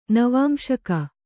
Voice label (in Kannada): "ನವಾಂಶಕ", Kannada